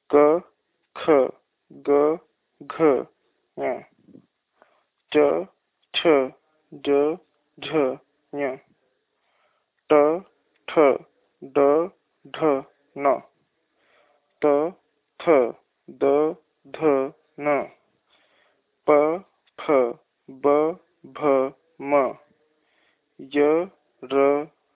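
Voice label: consonants Hindi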